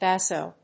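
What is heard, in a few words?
"basso", English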